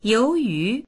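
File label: "you2yu2", Mandarin Chinese; 由于; [介]…によって、[接]…なので; dictionary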